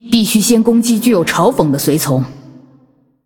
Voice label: Female Ghost ERROR TAUNT, zh

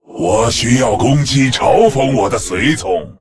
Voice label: Male Lich ERROR TAUNT, zh

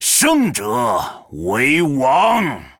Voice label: Male Troll MIRROR START, zh

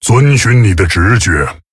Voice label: Male Tauren; zh; Fresh Scent

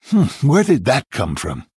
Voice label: Male Human Oops; en

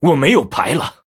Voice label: Male Blood Elf; zh

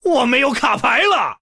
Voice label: Male Human No Cards, zh

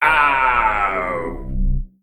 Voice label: Male Mech Death; zh

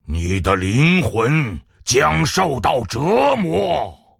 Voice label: Threaten, zh

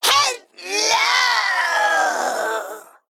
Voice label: Male Mech Death; en; AnnoyO

